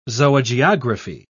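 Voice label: "zoogeography", British English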